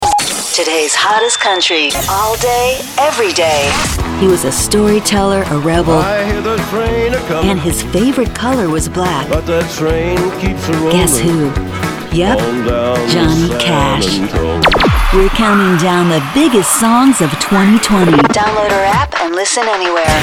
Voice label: Radio VO